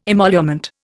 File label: "emolument", Indian